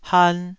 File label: "han2", Taiwanese syllable